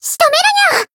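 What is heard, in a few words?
Voice finish, Japanese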